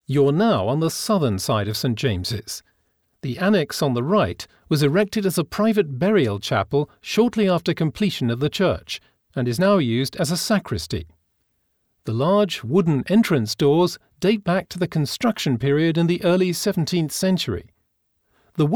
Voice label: Audioguide; St James, South Portal and James the Disciple; Dachau